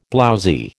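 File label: "blowzy", American English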